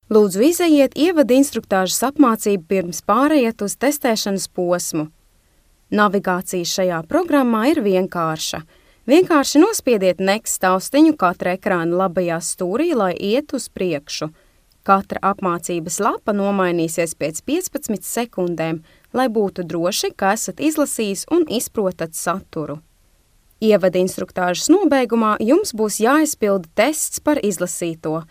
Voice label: Latvian